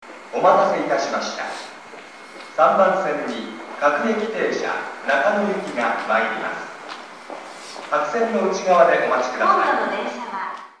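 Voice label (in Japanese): 八千代緑が丘駅 駅放送; 3番線; 接近; yachiyo-midorigaoka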